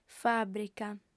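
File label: "fàbrica", female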